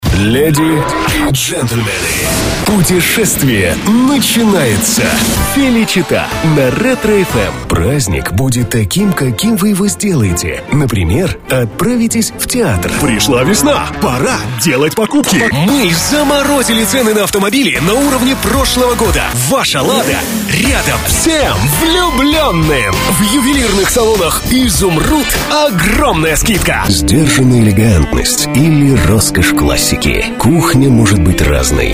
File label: Rus